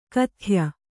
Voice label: "ಖಾದ್ಯ", Kannada